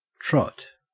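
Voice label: "trot", Australian English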